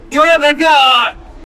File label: old man yelling angrily